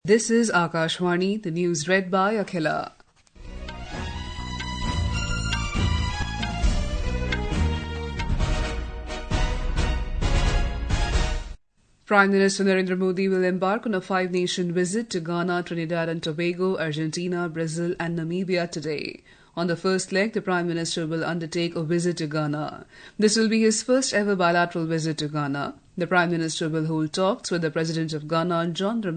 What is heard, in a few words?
English; IFFI Goa 2022